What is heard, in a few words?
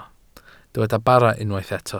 "bara", Welsh